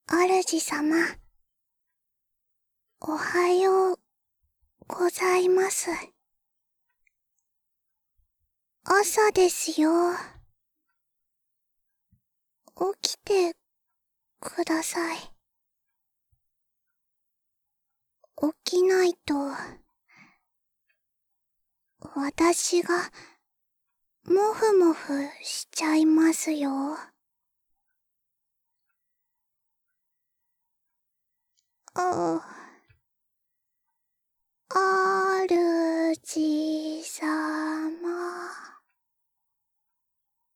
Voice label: 目覚まし ボイス; ベル・カールステッド

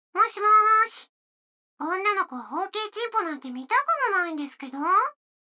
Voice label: 女の子台詞, ASMR

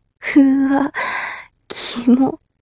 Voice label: mob女子台詞

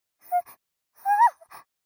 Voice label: mob 女子 台詞

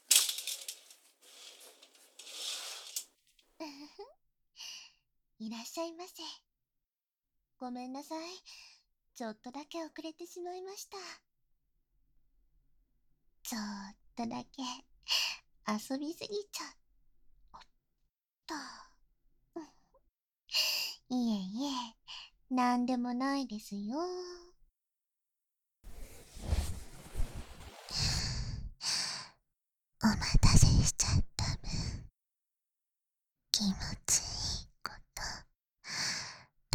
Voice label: オイルマッサージ(囁きMAX盛り se大きめ); 入店

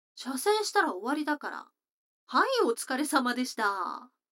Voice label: 女の子台詞

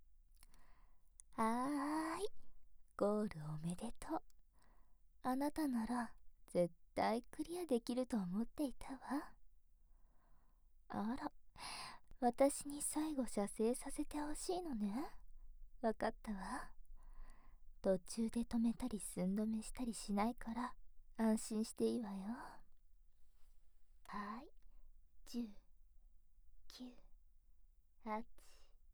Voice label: ゴール お姉さんに, ASMR

